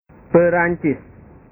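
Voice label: "perancis", Indonesian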